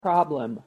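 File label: "problem", English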